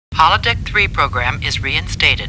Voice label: Computer Voice; Star Trek; StarTrekLCAR